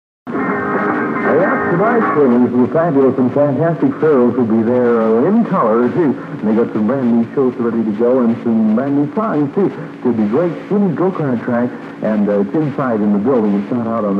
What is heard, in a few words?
Radio Commercial Dance Go-Kart Raceway Spot; KITN